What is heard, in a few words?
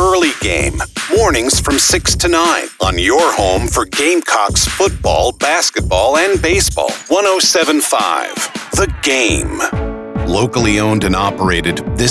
News Talk Sports, Radio Imaging